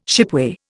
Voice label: "shipway", Indian